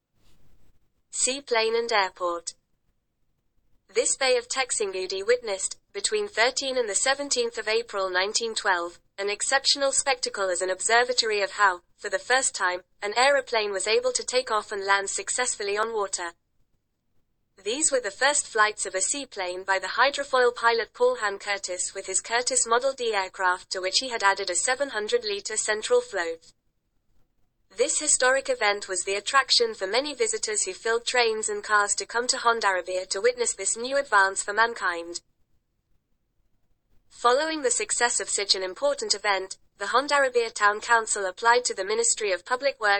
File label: ENG; Hidroplano y aeropuerto; audio guide hondarribia tourist route by sea